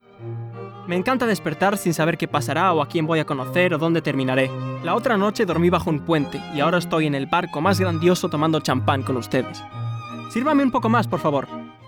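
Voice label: Spanish European, male, narration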